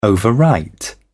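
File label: "overwrite", English, British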